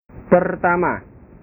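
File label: "pertama", Indonesian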